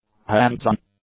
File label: "hands-on", English; adjectives